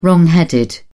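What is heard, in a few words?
"wrongheaded", English, British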